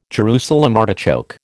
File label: "Jerusalem artichoke", American